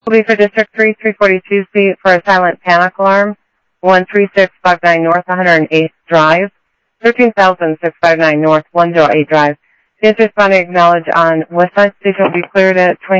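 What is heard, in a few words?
Sheriff East County, scanner audio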